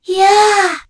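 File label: Vox Casting, korean; Kara; kingsraid; voices heroes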